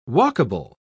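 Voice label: "walkable", British English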